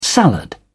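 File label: "salad", British English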